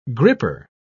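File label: "gripper", British English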